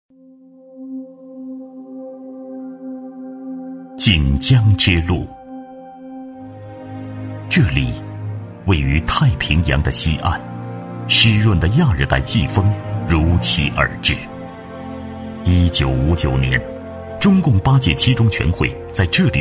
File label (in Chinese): 男 专题, 国语男声配音, 浑厚磁性; 锦江之路